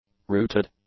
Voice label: "rooted", English; adjectives